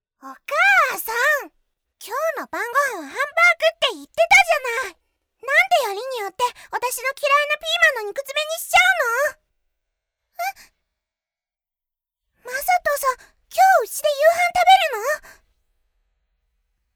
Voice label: 元气萝莉

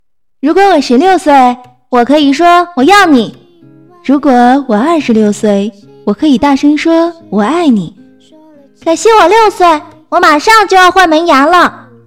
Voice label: 少年青年幼年

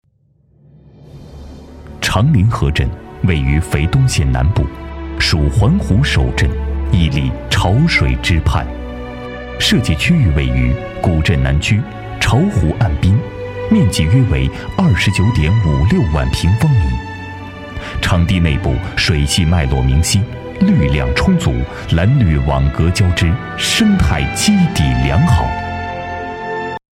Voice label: 大气纪录片; 平安商铺

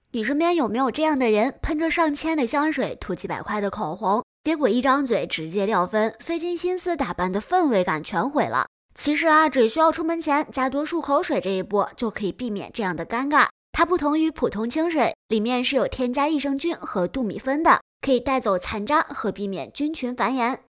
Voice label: 抖音素感信息流漱口水

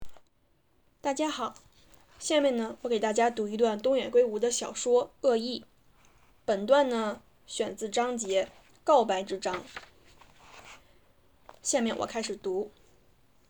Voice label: 中文

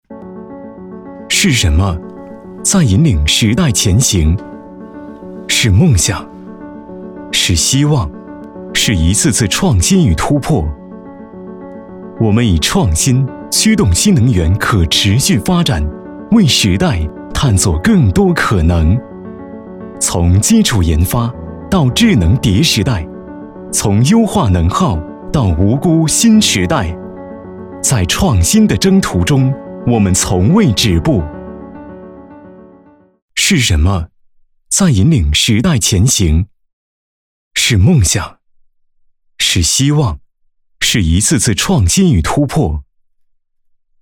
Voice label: 探索未来宣传

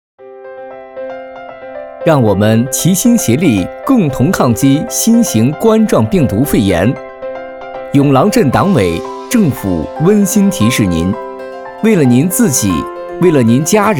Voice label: 彩铃温暖; 永郎镇疫情

